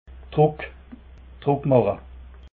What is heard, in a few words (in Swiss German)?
"druck", alsace